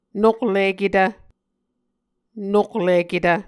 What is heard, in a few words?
"nokliketv", Muscogee